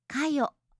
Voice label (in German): "kayo", japanische